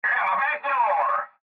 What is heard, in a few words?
Cb Trucker Radio; Radio Voice; INDUSTRY; Blastwave